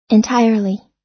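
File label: "entirely", American English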